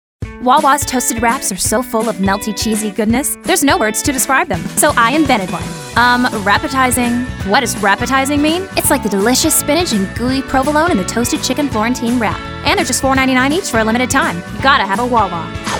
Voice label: voice over; Voice Over Reel